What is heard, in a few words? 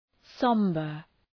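"sombre", English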